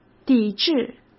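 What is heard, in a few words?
"抵制", Mandarin Chinese; hsk; chinese flashcards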